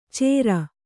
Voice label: "ಚೇರ", Kannada

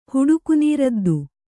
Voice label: "ಹುಡುಕುನೀರದ್ದು", Kannada; huḍuku nīraddu

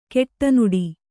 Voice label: "ಕಡುನುಡಿ", Kannada

